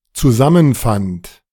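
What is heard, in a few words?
"zusammenfand", German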